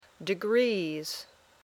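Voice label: "degrees", English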